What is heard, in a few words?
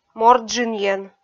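"морт джынйӧн" Komi, female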